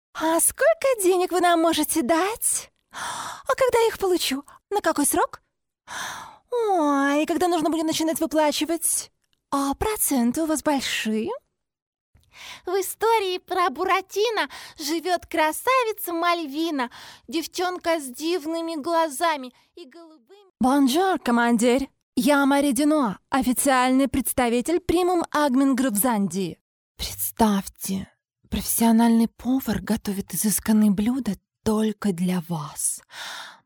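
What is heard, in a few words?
Russian female voice over, character; russian voice over talent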